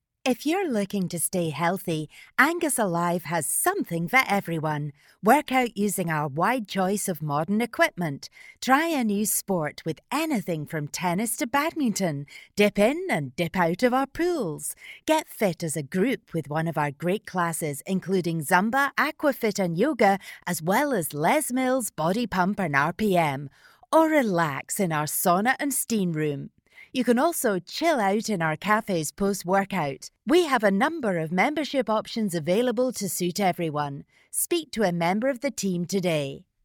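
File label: British Scottish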